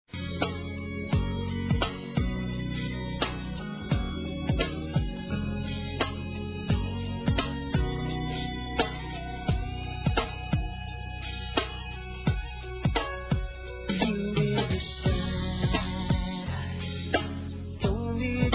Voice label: Dia da Mulher, fem; Telemensagens; Dia Internacional da Mulher; Telemensagens 2017

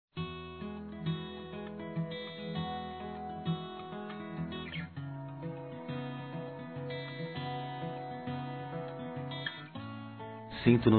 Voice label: ROMANTICO Distante Masculino, Dia Dos namorados; Telemensagens; Telemensagens 2017

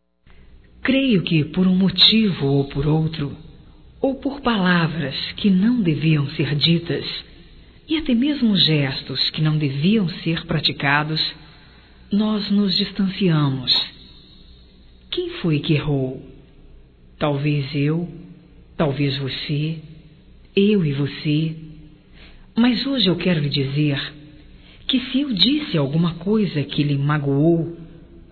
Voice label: RECONCILIAÇAO DE NAMORO, fem; Românticas

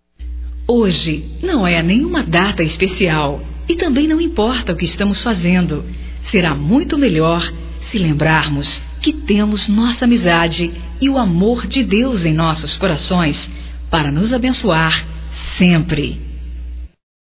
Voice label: Amizade Geral Feminino, Telemensagens

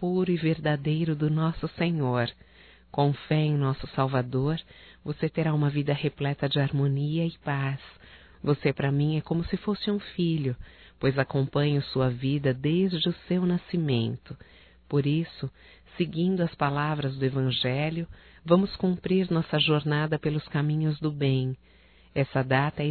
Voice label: Aniversário Sobrinho Evangélico Feminino; Telemensagens; Telemensagens 2017

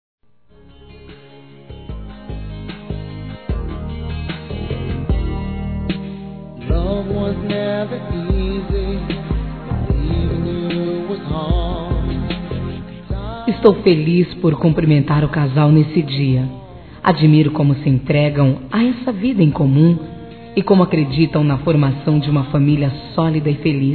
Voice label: Aniversário Casamento Geral Feminino

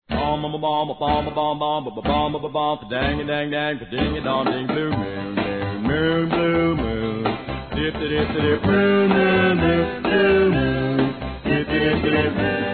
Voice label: Homenagem Para Irmão Feminino

